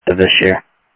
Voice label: scanner audio, Sheriff